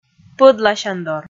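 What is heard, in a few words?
"пӧдласяндор", Komi, female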